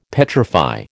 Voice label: "petrify", American

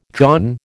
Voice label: "dawn", American

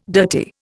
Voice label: "dirty", Indian

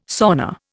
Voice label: "sauna", Indian

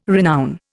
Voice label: "renown", Indian

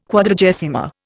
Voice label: "Quadragesima", Indian

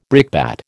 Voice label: "brickbat", American

